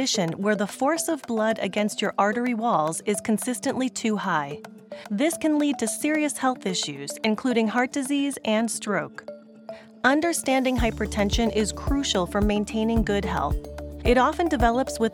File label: medical explainer; Hypertention